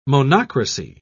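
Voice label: "monocracy", English, British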